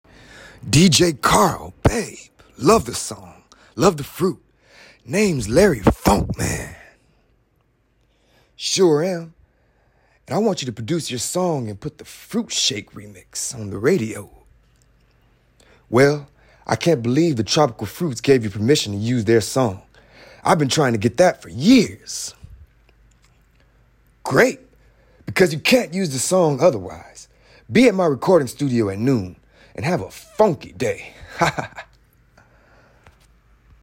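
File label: Animation, vo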